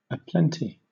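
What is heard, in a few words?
"aplenty", British English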